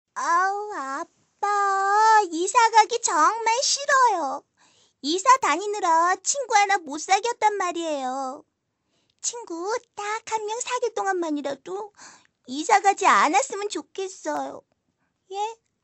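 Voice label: Korean female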